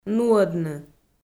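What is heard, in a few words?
"нуӧдны", Komi, female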